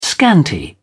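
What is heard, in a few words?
"scanty", British English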